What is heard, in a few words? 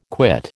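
"quit", American English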